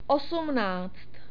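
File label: "osmnáct", czech; numbers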